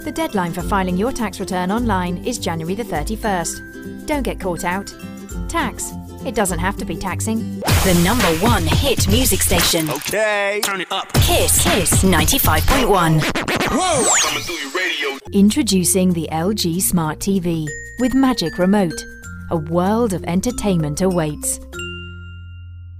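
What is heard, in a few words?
British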